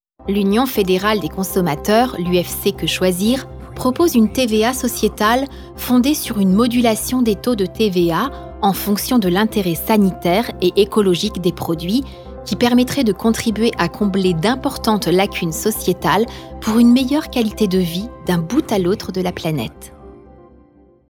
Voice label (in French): voix off femme fr; Studio Com'un Son; SPOTS RADIOS